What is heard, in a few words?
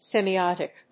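"semiotic", English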